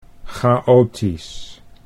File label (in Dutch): "chaotisch", Dutch; 'chaotic'